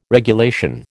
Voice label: "regulation", American

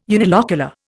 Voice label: "unilocular", Indian

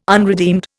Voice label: "unredeemed", Indian

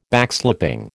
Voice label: "Backslapping", American

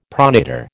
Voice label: "pronator", American

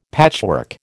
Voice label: "patchwork", American

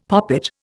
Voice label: "poppet", Indian; Dictionary

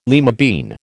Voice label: "lima bean", American

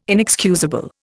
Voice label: "inexcusable", Indian

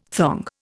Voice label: "thong", Indian

